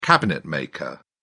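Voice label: "cabinetmaker", British English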